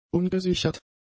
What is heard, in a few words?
"ungesichert", German; adjectives